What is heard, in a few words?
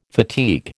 "fatigue", American